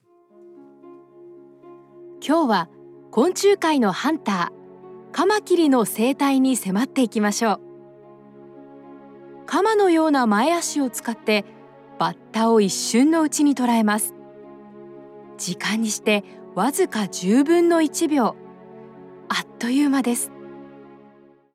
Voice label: japanese documentaries

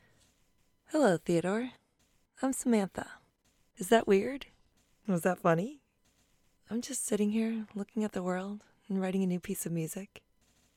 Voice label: english american impersonations

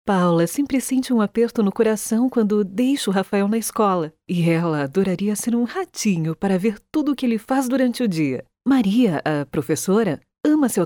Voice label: portuguese brazilian documentaries

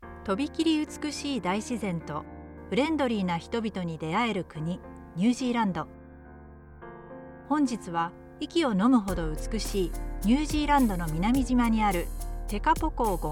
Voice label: japanese documentaries

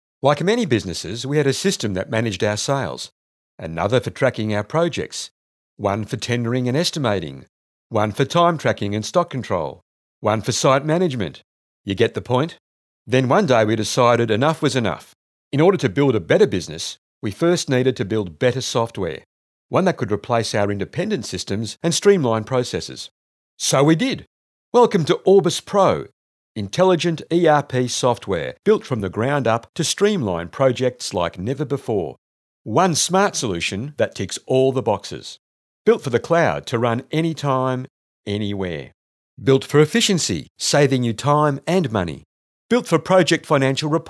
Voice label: english australian commercial

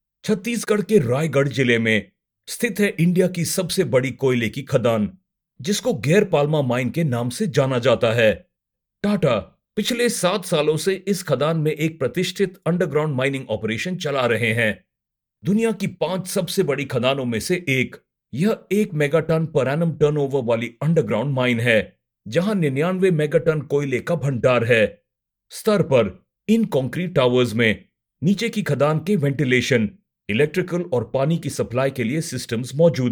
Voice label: english indian corporate videos

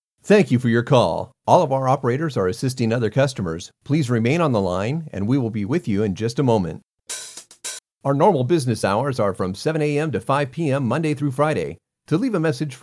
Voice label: english american ivr; Vereinigte Staaten

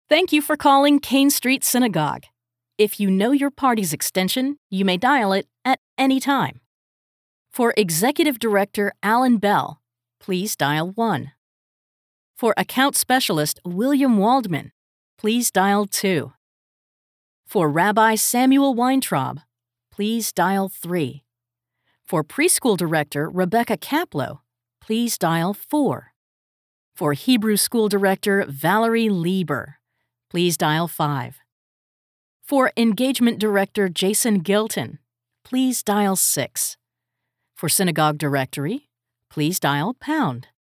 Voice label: english american ivr

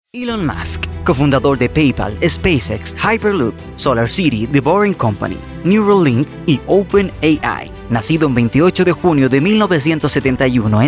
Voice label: Spanish Latin American documentaries

